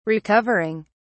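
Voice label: "recovering", British English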